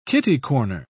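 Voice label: "kitty-corner", British English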